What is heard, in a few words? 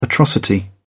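"atrocity", English, British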